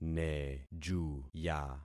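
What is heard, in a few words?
"nejuya", concatenated syllables; Affixing experiment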